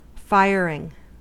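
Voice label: "firing", US English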